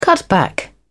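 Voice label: "cutback", British English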